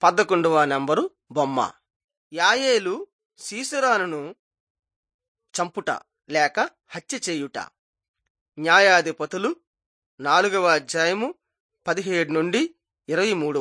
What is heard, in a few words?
telugu bangladesh